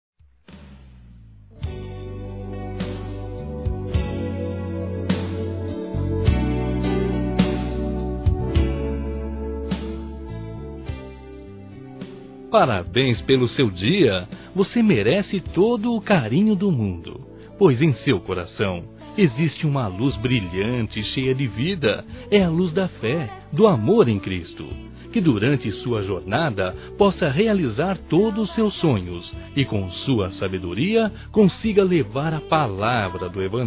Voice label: Geral Masculino; Dia das Mães; Telemensagens 2017

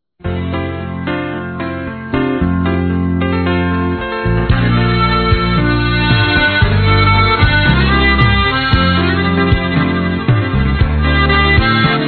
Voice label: ANIV. MÃE F; Aniversários; Aniversários diversos; fem

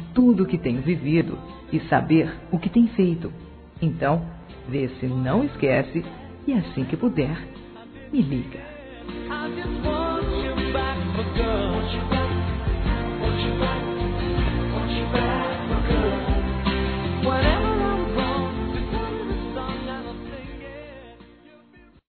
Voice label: SAUDADE AMIZ. F, Telemensagens; Amizade

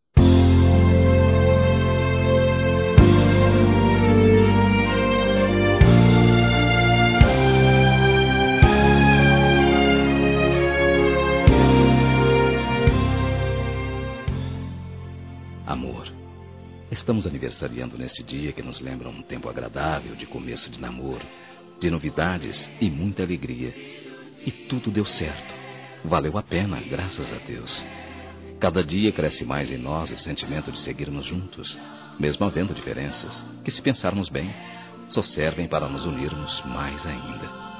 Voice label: CASAMENTO ESPOSA M; Aniversários; ANIVERSARIO romantico; masc

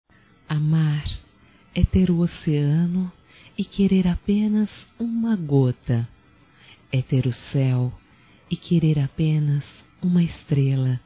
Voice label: ROMÂNTICA POESIA - F, Telemensagens; Românticas

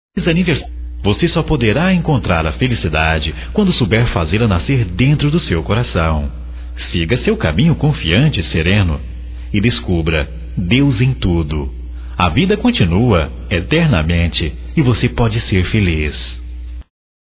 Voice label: Otimismo Geral Masculino

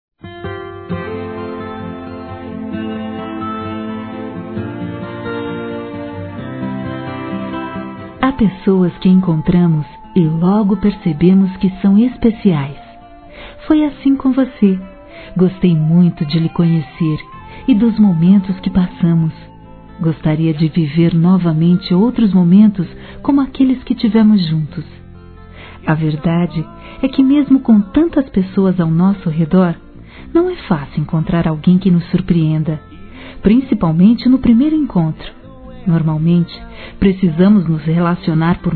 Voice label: ANIV QUEM ESTA FICANDO (F); Aniversários; Aniversários diversos; fem

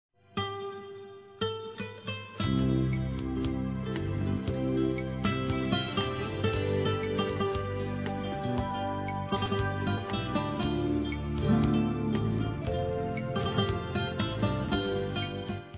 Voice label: Aniversário Avô Feminino

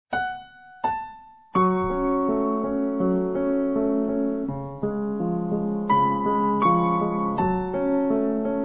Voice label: PAI VOCÊ É UMA MÃE F, Telemensagens, Dia das Mães; Telemensagens 2017

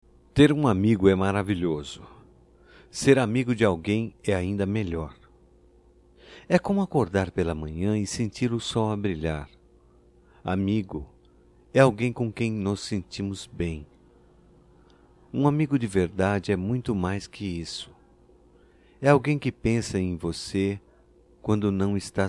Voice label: M; DIA DO AMIGO; Telemensagens 2017; Datas Mistas

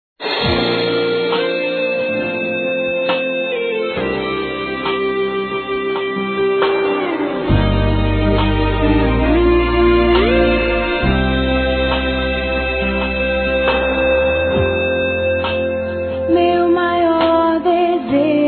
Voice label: conquista f, Telemensagens Gospel fem; Telemensagens 2017

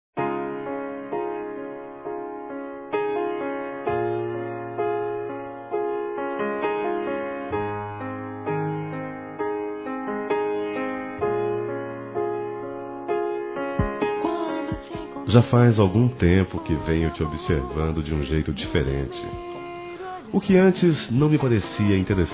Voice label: Romantica Quer Namorar Comigo Masculino, Telemensagens

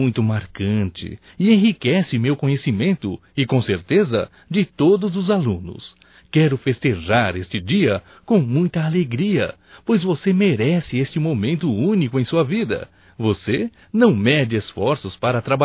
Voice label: Dia Professor Masculino, Telemensagens, Só Voz; DATAS COMEMORATIVAS

